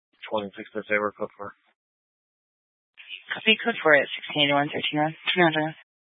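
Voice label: Sheriff Dispatch, Scanner audio recording archive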